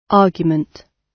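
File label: "argument", British English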